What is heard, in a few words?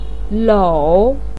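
"lou", Mandarin pinyin, tone 3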